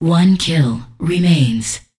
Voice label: Voices Announcer Status